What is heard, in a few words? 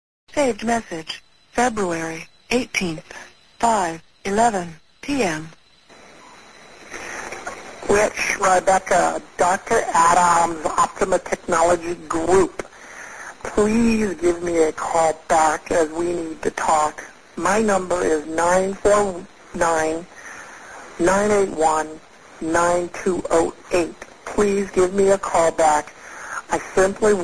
troll calls